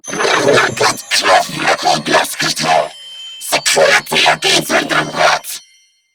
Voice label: Female, Grineer; New War; Narmer; Patrol

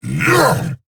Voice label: Attack, en; Warframe; Eidolon Grineer; Battle Barks

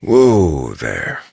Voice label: Horse Stop Walk Adult; Duviri

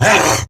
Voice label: Attack Grineer Wolf, en; Battle Barks

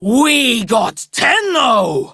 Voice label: Enemy Spotted Female

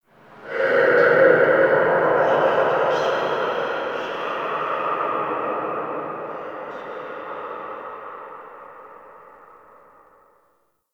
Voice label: Prayer Whisper; Lab; Warframe; Tau; Protoframe Room; Ambience

